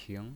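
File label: "ping" second tone, pinyin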